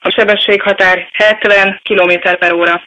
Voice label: Speed Limit 070 kph, HU voice female; Igo 8